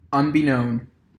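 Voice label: "unbeknown", US English; pronunciations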